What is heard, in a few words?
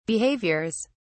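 "behaviours", en, uk British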